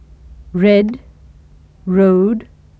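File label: English red road; [ɹɛd ɹəʊ̯d]; engelsk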